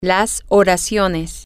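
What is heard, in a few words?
the sentences, Spanish, female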